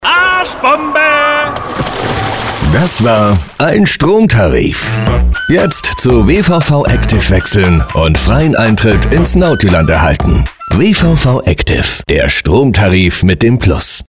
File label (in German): Werbung; SWW Stadtwerke Wuerzburg